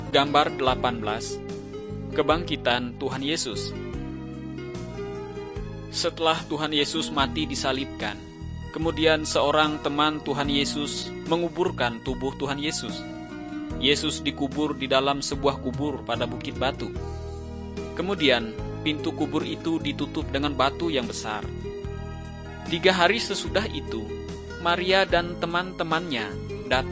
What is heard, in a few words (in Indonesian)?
kabar baik good news bahasa indonesia